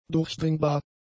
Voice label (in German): "durchdringbar", German; adjectives